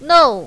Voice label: "nou4", mandarin, female

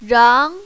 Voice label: "rang2", mandarin, female; 穰

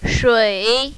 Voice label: "shui3", mandarin, female; 水; wū; у1; 汙; шуй3

